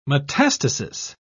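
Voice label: "metastasis", English, British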